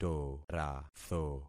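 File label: "toratho", concatenated syllables; Affixing experiment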